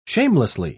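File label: "shamelessly", British English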